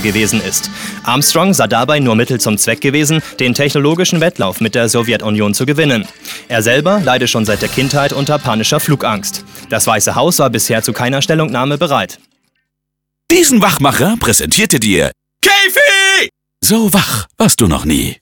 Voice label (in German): Mondlandung o Lachen